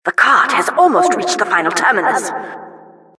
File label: announcer cart defender final warning